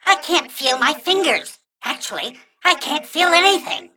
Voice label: Mech Greetings

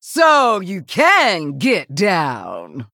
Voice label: Female Human Emote Well Played

